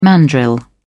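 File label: "mandrill", British English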